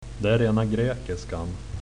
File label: its all greek to me, swedish